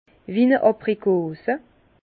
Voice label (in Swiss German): "abrikose", Bas Rhin; vin; abricot